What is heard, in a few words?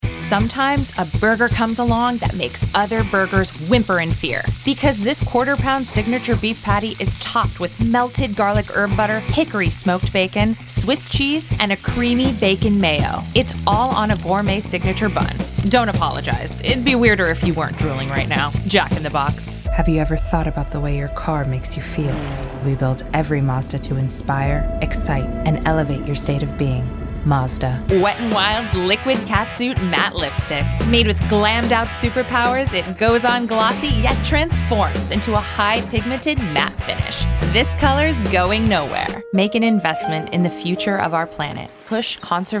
Commercial